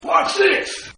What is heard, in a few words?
Man Says Watch This; Exclamations; Voice